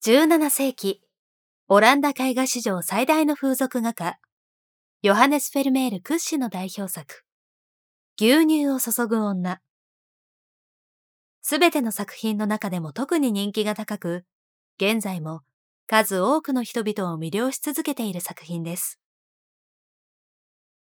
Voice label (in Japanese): ナレーション